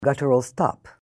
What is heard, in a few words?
Guttural stop, Hebrew; hebrew introduction